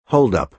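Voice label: "hold-up", American English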